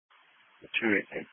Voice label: Police Patrol 1 (Dispatch)